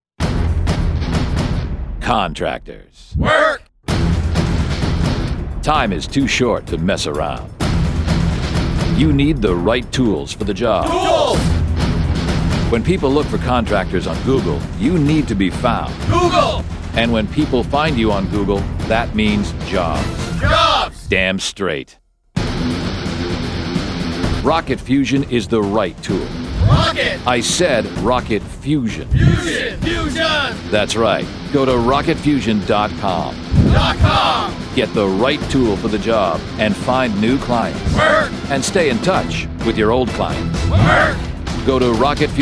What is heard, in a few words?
Radio Ad; RocketFusion WORK